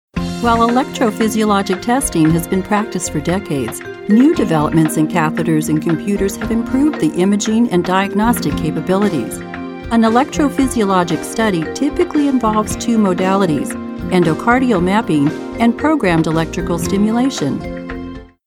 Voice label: Light Medical

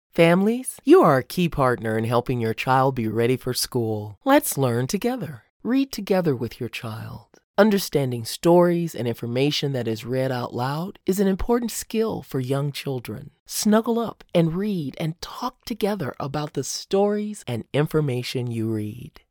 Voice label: Early Childhood Edu Video Series

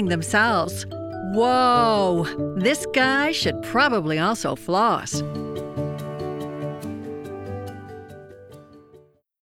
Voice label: E Learning, LEMUR KIDS

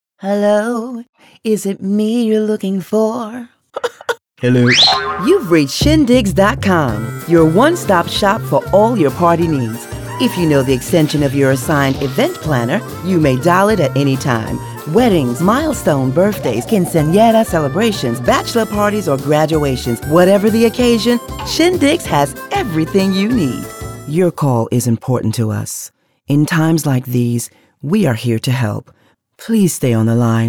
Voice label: Telephony-IVR; Telephony-IVR Demo

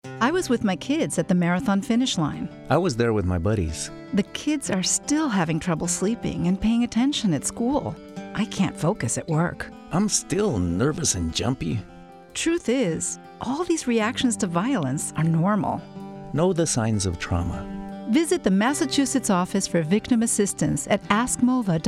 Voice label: English PSA; MOVA

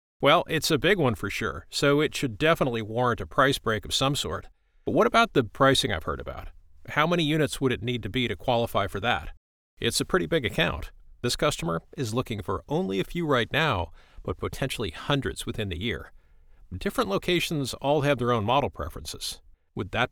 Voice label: eLearning Scenario Character CS Training

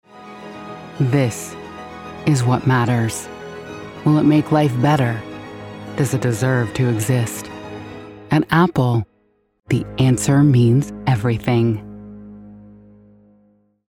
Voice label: Commercial; grounded, confident, authoriative; Apple

